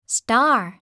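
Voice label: "star", English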